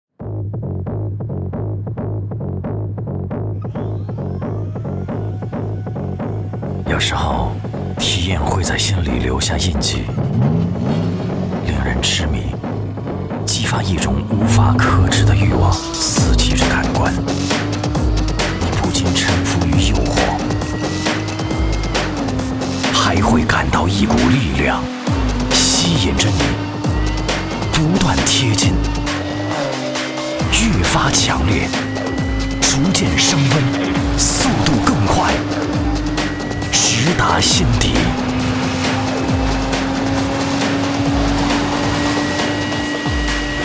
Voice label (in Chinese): 男 汽车广告, 国语男声配音